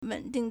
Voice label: "wen3ding4", Chinese